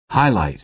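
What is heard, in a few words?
"highlight", American English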